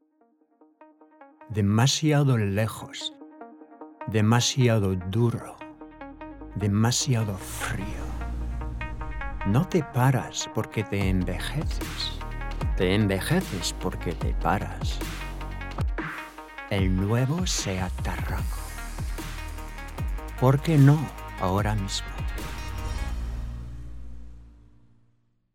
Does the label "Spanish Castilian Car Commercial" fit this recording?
Yes